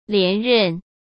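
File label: "连任", Mandarin Chinese; hsk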